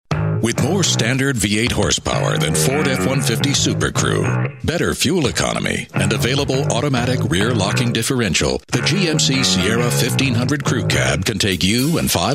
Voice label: Men Commercial

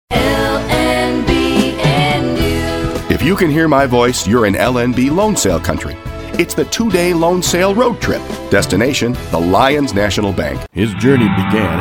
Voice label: Men Commercial

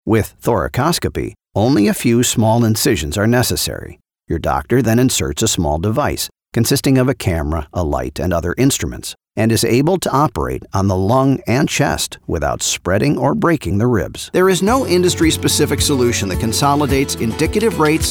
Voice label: Narration

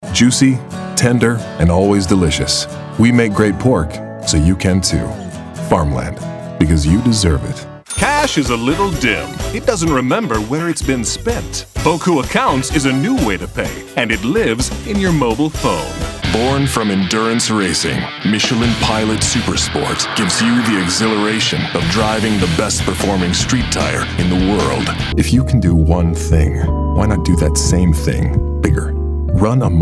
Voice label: Men Commercial

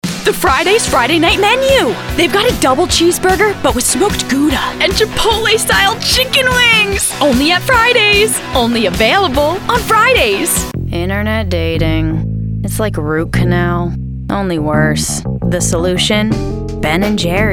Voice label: comm, Women Commercial

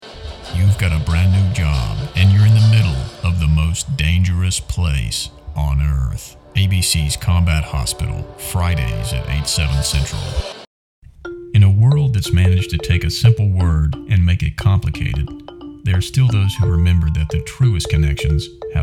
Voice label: COMMERCIAL, Men

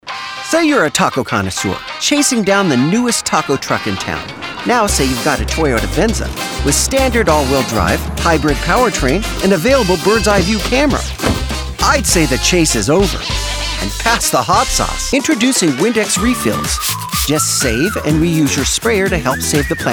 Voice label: Men Commercial

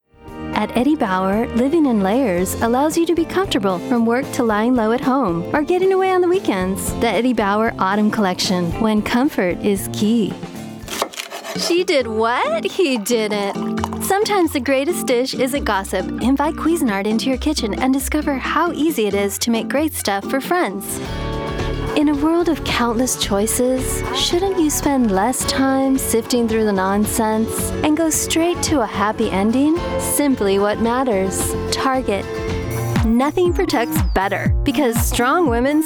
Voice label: Spanish

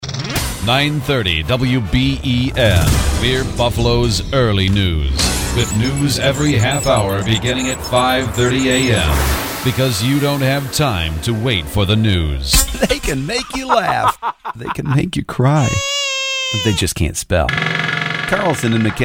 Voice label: Men Radio Imaging

